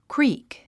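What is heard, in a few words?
"creak", English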